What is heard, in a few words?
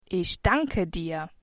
thanks, german